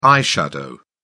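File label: "eye shadow", British English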